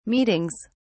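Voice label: "meetings", English, British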